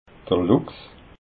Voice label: "luchs", alsace

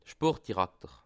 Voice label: "sportdirakter", alsace

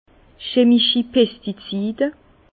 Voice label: "chemischi", Bas Rhin; pesticide

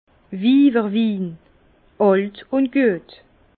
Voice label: "wiiwerwin", Bas Rhin; vin; vin de bonnes femmes vieux et bon

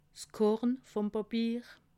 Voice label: "korn", alsace

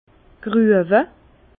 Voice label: "gruewe", Bas Rhin; vin; marcotter

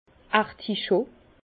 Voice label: "articho", Bas Rhin; vin; artichaud

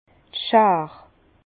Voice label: "schaar", Bas Rhin; vin; sécateur

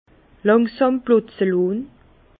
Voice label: "langsam", Bas Rhin; laisser tomber car déborde; vin

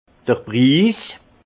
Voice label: "priis", alsace; vin; prix

